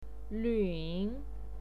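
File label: "luun" third tone, pinyin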